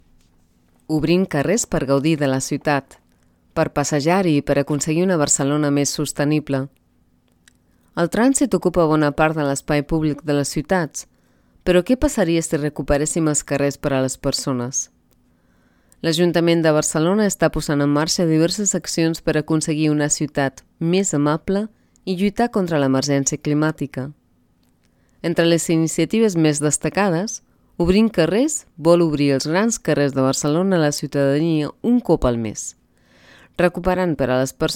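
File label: CA General, Catalan; GoLocalise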